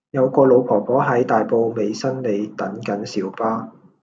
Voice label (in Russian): Голоса, Гонконгский